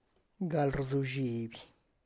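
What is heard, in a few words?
kneels, Tlacochahuaya Zapotec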